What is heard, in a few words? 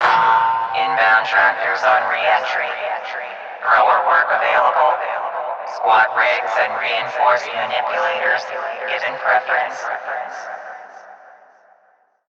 PA System, en; Warframe; Solaris Venus